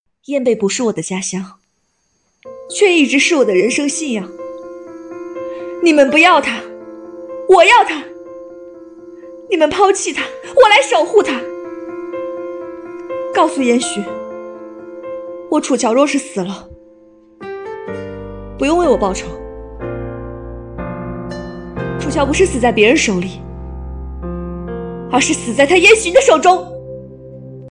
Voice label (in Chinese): 影视剧 台词; 楚乔